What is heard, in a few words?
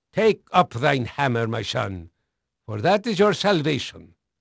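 Dutch male, voice; Pirates Of The Caribbean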